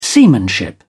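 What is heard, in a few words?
"seamanship", British English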